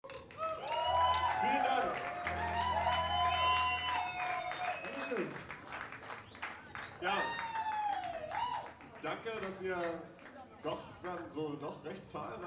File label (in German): ansage